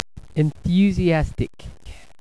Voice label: "enthu", UK